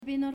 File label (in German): "Vino" Spanisch